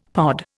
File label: "pod", Indian; Dictionary